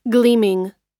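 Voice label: "gleaming", American English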